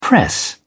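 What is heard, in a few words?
"press", British English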